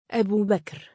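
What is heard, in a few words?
"2abuubakr" male, Arabic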